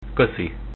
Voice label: thanks, Hungarian